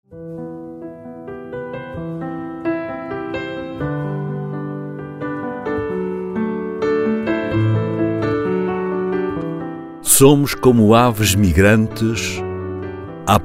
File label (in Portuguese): poema com musica